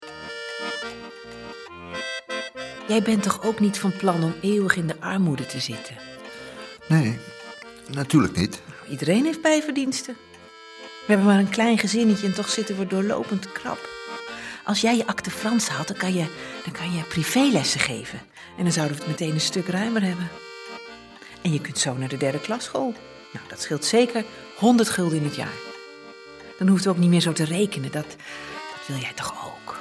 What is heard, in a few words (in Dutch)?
dialoog Hoorspel